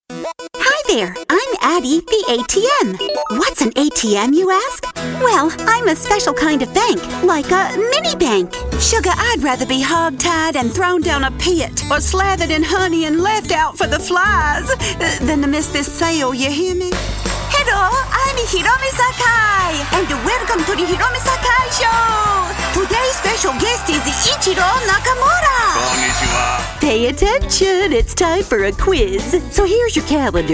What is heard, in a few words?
Character Demo